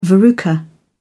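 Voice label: "verruca", British English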